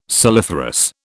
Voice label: "saliferous", British

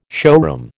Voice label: "showroom", American

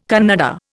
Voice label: "Kannada", Indian

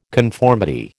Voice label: "conformity", American

